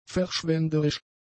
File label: "verschwenderisch", German; adjectives